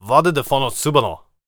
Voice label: warrior conversation emotion question